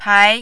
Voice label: "pai2", mandarin